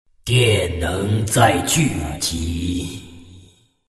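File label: levelup, chinese; dota2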